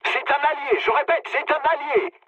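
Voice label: player friendly fire, mood low, French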